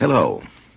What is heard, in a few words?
"hello", male; Voice; expletives